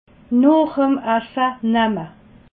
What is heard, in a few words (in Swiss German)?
"nochem", alsace